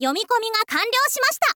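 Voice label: "yomikomi ga kanryou shimashita", Japanese, girl; voice; info girl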